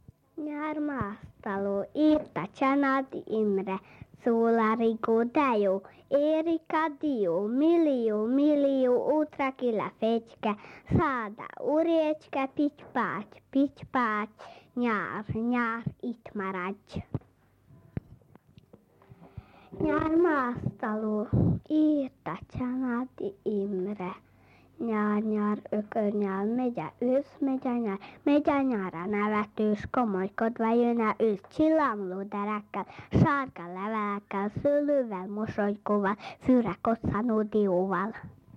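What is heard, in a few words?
dialect collections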